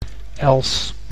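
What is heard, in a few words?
"els", Dutch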